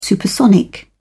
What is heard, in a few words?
"supersonic", en uk